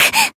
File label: damaged, jp